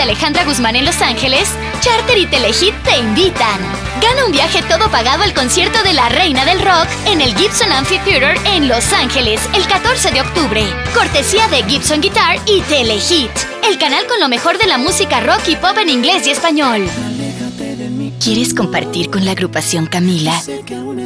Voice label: Radio ID Spanish